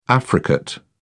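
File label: "affricate", English, uk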